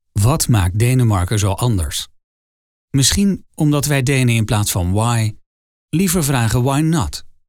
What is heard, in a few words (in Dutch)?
commercial gravitas nadenkend; Visit Denmark